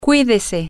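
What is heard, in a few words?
take care, Spanish, female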